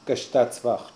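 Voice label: "Kshtatsvacht", pronunciations; Fourth Way; Beelzebub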